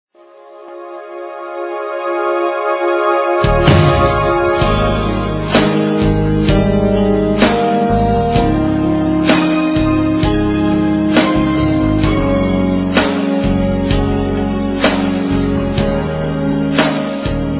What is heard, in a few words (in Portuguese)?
telemensagens Feliz Aniversario Amor; COMEMORATIVAS